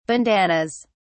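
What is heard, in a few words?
"bandannas", British English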